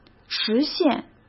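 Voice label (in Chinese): "实现", Mandarin Chinese; hsk; chinese-flashcards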